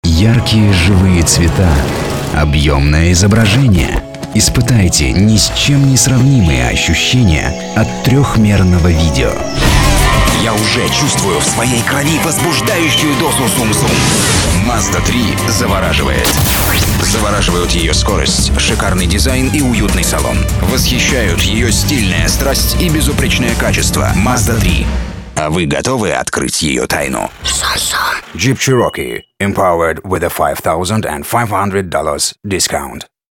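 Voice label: russian male commercial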